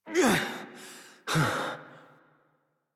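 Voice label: horse dismount adult, English; Warframe; Duviri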